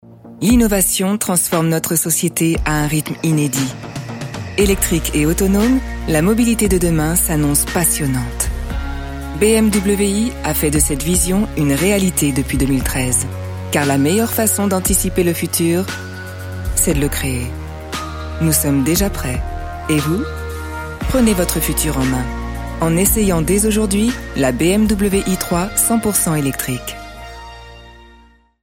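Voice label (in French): pub, voix off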